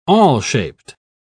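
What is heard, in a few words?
"awl-shaped", British English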